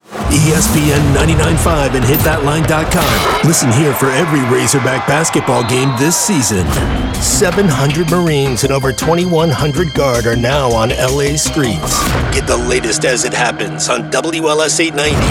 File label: sports news talk